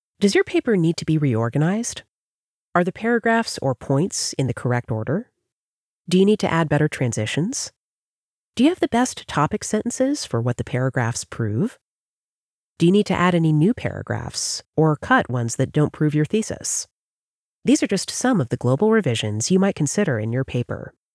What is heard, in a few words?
writing a paper eLearning